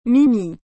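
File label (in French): "mimi", French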